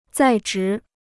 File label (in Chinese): "在职", Chinese